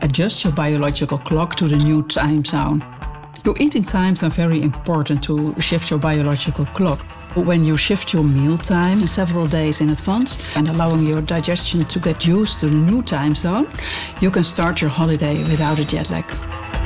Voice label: UK Meals Against Jet Lag, voice-overs; TUI